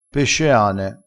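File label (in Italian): "pesceane", dialettale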